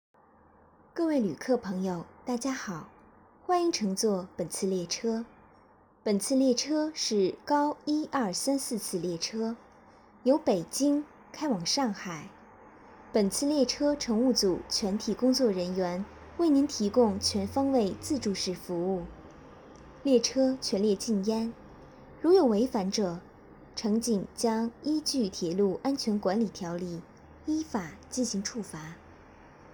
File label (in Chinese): 列车配音